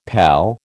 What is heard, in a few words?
"pal", American